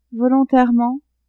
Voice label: "volontairement", French; adverbs